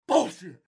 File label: cough bullshit